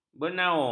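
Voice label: "bernaung", Malay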